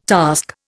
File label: "task", Indian